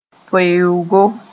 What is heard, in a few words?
"toeoogo", moore